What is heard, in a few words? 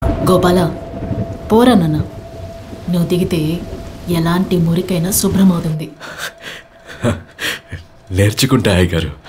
Telugu Teaser Dailouge; NGK; Suriya